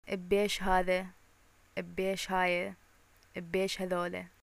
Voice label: shopping how much, arabic, iq